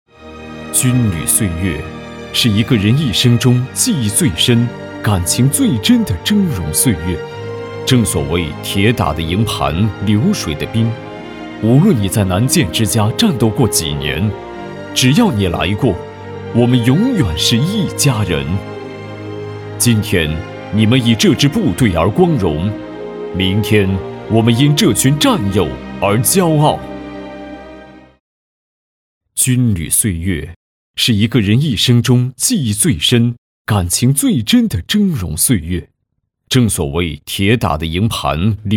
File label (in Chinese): 男 专题片 大气情感 退伍老兵